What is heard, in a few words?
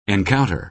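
"encounter", American English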